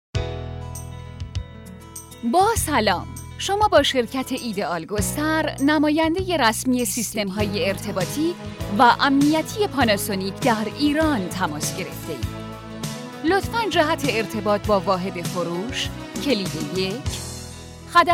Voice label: IVR